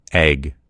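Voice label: "egg", American English; gcompris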